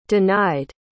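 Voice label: "denied", English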